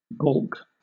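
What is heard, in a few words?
"balk", British English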